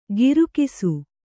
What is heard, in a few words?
"ಗಿರುಕಿಸು", Kannada